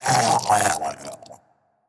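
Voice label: Skeleton Death voice; Skell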